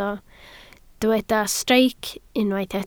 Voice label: "streic", Welsh